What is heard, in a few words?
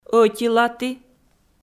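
"ӧтилаті", Komi, female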